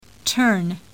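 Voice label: "TURN", English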